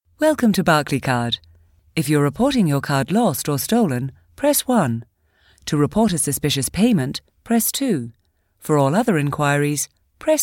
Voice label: telephone on hold